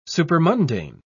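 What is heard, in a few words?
"supermundane", English, British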